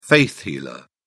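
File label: "faith healer", British English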